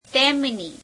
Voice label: gujarati his; તેમની [teemeneī]; Possessive Pronouns